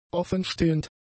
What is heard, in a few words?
"offenstehend", German; adjectives